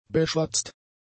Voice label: "beschwatzt", German; adjectives

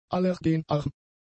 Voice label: "allergenarm", German; adjectives

